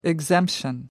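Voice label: "exemption", British English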